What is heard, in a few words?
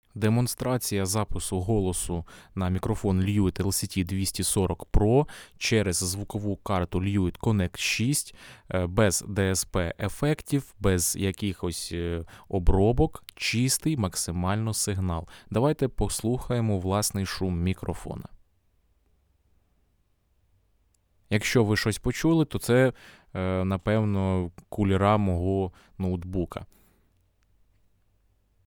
lewitt 240 pro voice ukr; Lewitt 240 PRO - Голос; електретний, кардіоїдний мікрофон